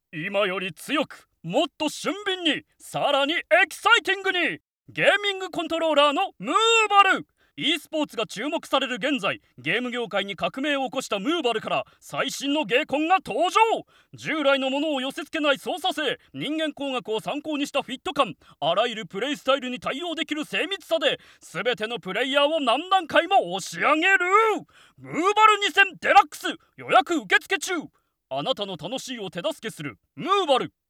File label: male